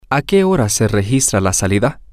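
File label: Spanish, when is the check out time, male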